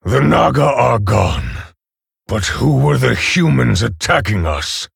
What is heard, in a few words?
Male Orc Ogre